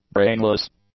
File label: "brainless", English; adjectives